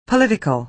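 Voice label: "political", American English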